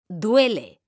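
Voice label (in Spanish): "duele", Spanish; mekanta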